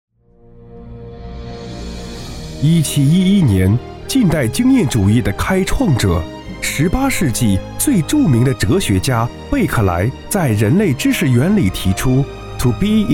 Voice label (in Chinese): 专题 大气沉稳厚重; 近代经验主义的开创者成品